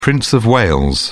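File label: "prince of wales", British English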